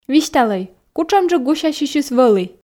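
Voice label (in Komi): висьталӧй кутшӧмджык гусясьысьыс вӧлі, Komi, female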